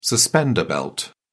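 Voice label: "suspender belt", British English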